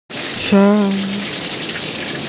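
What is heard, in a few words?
"re4", Mandarin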